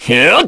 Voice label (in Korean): Vox Skill, kr; Clause; kingsraid